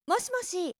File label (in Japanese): もしもし, 働く女の子